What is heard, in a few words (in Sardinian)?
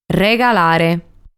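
"Regalare", Sardinian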